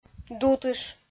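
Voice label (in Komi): "дутыш", Komi, female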